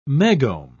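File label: "megohm", British English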